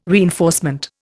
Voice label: "reinforcement", Indian